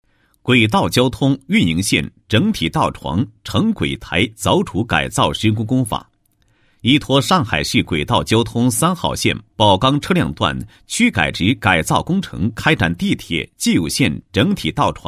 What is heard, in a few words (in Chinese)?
男 工程解说; 支撑块凿除工法解说